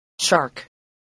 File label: "shark", spelling